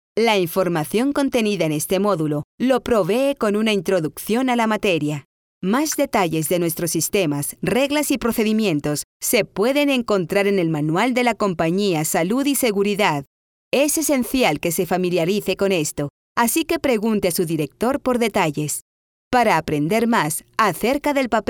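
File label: Spanish Spain female narrative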